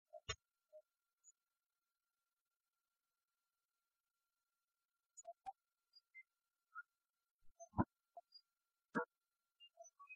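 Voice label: Dialogue Telugu Ringtone; Jalsa; Pawan Kalyan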